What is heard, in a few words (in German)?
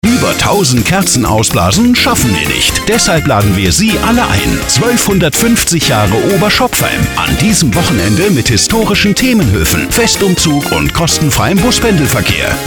radiospot; oberschopfheim